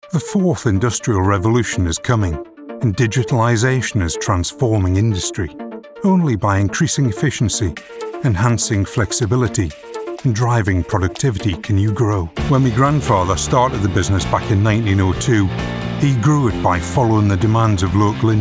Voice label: corporate commercial